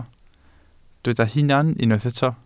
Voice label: "hunan", Welsh

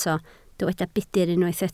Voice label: "budr", Welsh

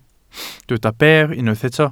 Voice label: "ber", Welsh

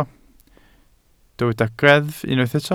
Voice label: "greddf", Welsh

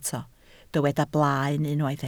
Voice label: "blaen", Welsh

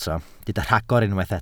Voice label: "rhagor", Welsh

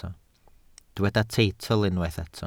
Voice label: "teitl", Welsh

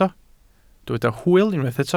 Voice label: "hwyl", Welsh

